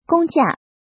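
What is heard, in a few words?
"Gōng jià", Chinese; Tu vung nghi phep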